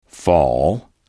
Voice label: "fall", English

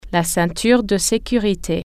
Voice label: "seat belt", French

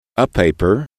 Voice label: "a paper", English

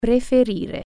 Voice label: prefer, italian

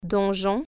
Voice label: dungeon, french

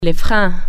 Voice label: brakes, french

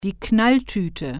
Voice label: "twerp", German

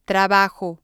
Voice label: "trabajo", Spanish

